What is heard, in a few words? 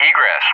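"Egress", English; JTAC; DCS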